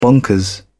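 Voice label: "bonkers", British English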